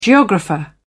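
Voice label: "geographer", British English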